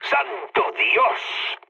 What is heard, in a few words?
trick, mood low, speech; War Thunder